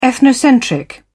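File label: "ethnocentric", British English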